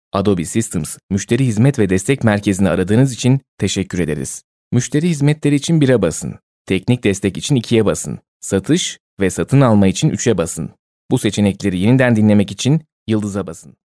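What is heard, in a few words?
Turkish, male, e-learning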